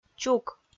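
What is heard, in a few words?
"чук", Komi-Zyrian, female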